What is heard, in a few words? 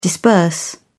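"disburse", British English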